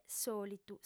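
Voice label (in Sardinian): "sòlitu", Sardinian, female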